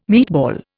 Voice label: "meatball", Indian

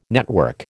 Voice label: "network", American

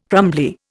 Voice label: "crumbly", Indian

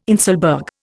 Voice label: "inselberg", Indian

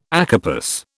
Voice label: "acarpous", British

